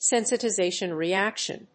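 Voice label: "sensitization reaction", English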